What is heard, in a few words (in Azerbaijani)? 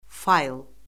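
"fayl", Azerbaijani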